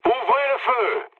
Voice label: attack position mood low, speech fr; War Thunder